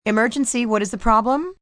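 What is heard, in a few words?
Operator Emergency, Telephone operator, female; VoiceBox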